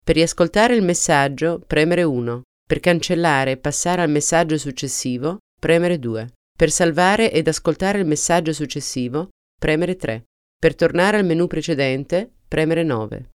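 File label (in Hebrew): Italian, קריינות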